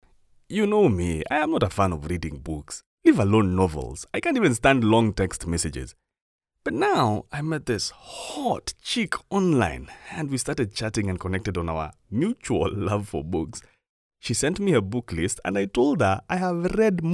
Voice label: male african voice over character, african english